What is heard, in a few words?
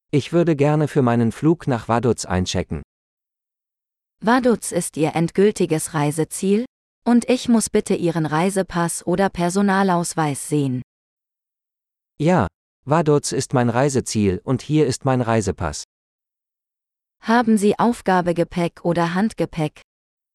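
At the Airport - Dialogue, German; de-en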